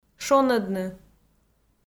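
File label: "шонӧдны", Komi, female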